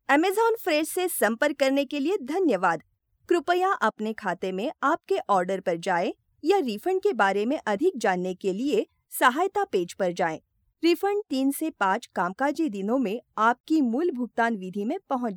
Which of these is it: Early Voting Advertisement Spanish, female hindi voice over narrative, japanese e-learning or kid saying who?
female hindi voice over narrative